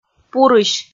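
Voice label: "пурысь", Komi, female; kpv audio dict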